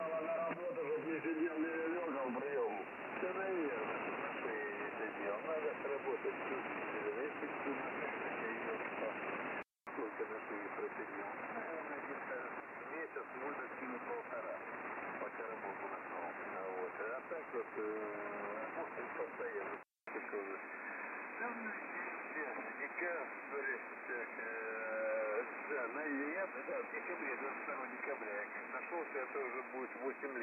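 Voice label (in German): 3671KHz, Russische Funkamateure auf 3671KHz in LSB; Dank der effektiven Toneinstellung des IC-R8600 kann das Signal durch zurückdrehen der Tonhöhe, etwas entrauscht werden.; NRD545; G33DDC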